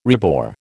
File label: "rebore", American